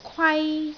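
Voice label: "kwai1", Cantonese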